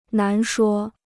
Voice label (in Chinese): "难说", Chinese